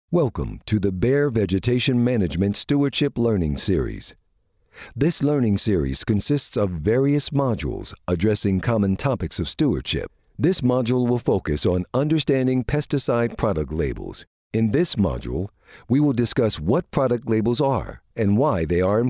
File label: english american narration